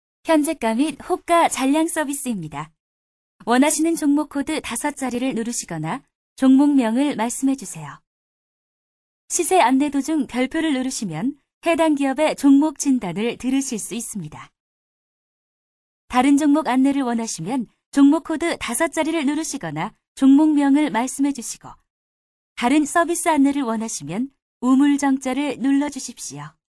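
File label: Korean, female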